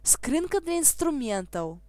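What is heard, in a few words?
toolbox desc, Belarusian; tuxpaint-stamps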